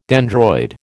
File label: "dendroid", American